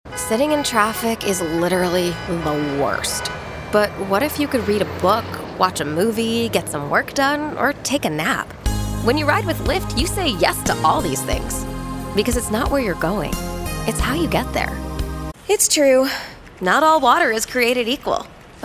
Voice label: Commercial